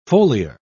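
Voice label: "foliar", British English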